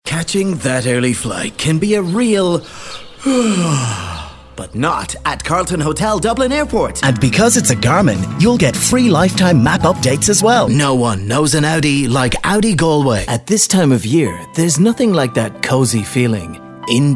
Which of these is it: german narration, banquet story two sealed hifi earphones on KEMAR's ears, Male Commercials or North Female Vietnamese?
Male Commercials